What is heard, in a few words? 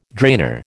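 "drainer", American English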